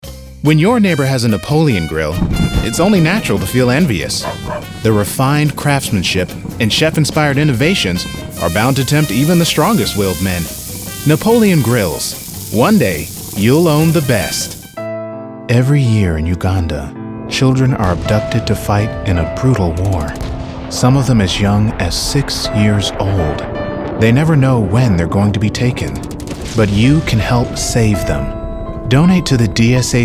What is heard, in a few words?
Commercial